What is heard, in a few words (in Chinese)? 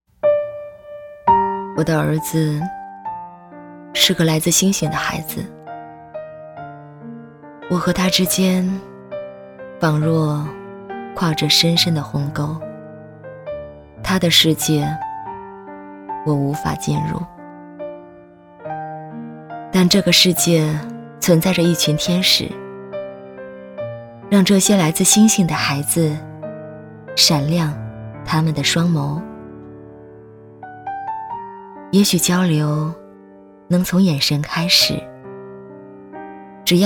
微电影, 女; 来自星星的孩子